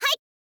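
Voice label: "hai", girl, Japanese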